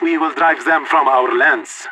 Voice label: radio lock n load; player vo leet